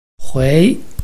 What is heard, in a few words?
"hui2", Chinese